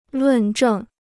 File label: "论证", Chinese